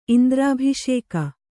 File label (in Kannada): "ಇಂದ್ರಾಭಿಷೇಕ", Kannada